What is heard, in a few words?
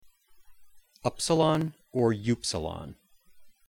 greek upsilon